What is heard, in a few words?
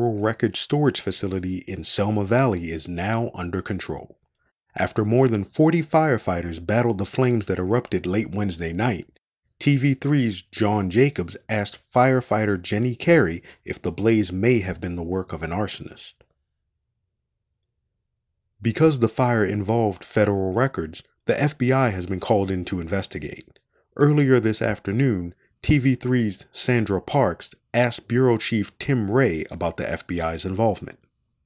news narration